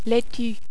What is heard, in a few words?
"laitue", French